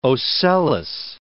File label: "ocellus", English